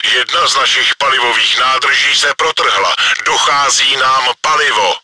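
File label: fuel tank damaged, чешской озвучки; Jedna z našich palivových nádrží se protrhla. Dochází nám palivo.; Czech; Один из наших топливных баков разорван, у нас заканчивается топливо.; World of Tanks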